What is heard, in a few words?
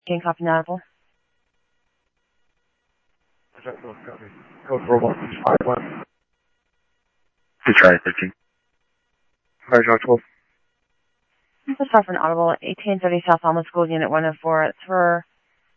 Simulcast Police Dep, scanner audio trunk; Mesa Simulcast; MESA PD 1 Fiesta; MesaPoliceDep